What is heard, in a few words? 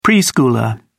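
"preschooler", British English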